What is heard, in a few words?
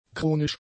"chronisch", German; adjectives